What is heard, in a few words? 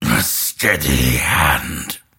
attack pain; dota; grimstroke